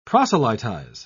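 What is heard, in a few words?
"proselytise", British English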